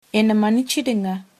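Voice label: sorry, Tamil, female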